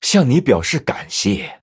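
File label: Male, Chinese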